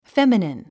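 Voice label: "feminine", English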